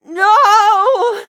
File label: hit, en; cookie run